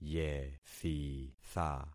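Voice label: "yethitha", concatenated syllables; Affixing experiment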